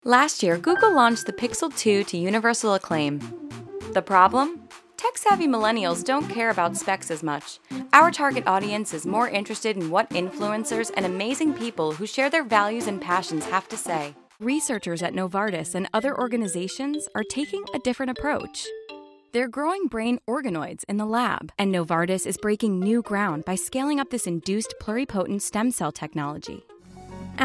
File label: Explainer